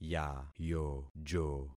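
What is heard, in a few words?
"yayojo", concatenated syllables; Affixing experiment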